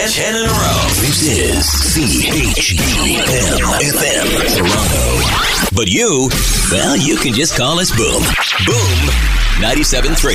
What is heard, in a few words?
radio male; radio imaging